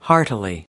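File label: "heartily", American English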